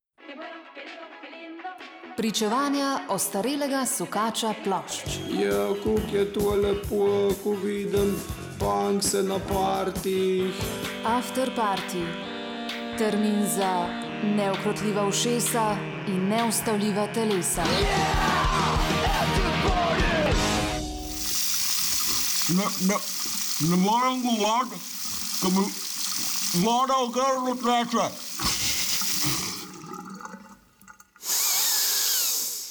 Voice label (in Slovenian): jingle; pod vodo